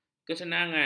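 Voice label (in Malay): "kesenangan", Malay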